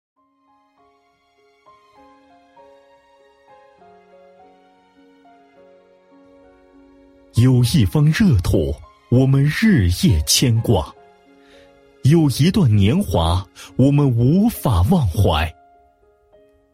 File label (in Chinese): 男 专题, 国语男声配音 大气浑厚; 西华学院